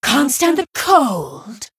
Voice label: Female Human Play

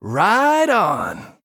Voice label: Male Human After Shop Upgrade

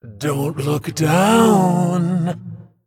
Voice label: Female Dragon Play

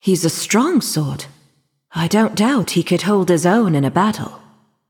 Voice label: Female Nightborne

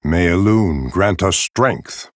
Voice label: Male Night Elf Bark; Malfurion Stormrage

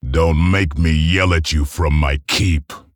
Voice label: Male Orc Bark; Drek'Thar

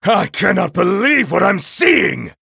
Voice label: Male Night Elf Wow

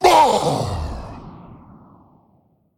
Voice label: Male Elemental Death

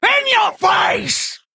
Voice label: Female Worgen Attack

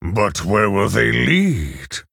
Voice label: Male Orc Ogre Greetings

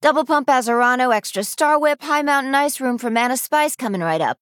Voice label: Female Human Play

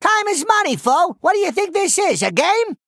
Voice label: Male Goblin Idle

